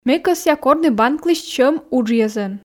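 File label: Komi sentences, female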